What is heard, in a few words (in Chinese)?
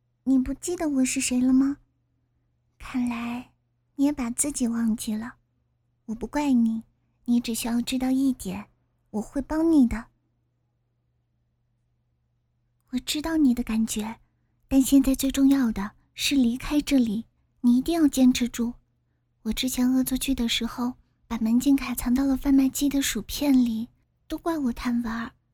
小女孩